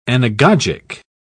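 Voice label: "anagogic", British English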